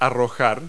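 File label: "throw", verb; Multi-Lingual Talking Picture Dictionary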